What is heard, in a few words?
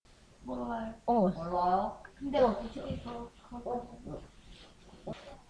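"also", spoken word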